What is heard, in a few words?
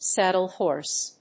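"saddle horse", English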